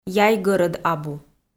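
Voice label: "яй гӧрӧд абу", Komi-Zyrian, female; kpv audio dict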